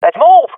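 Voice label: request move, swat female; vo; Counter Strike Model Pack; CS:GO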